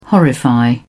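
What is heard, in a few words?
"horrify", British English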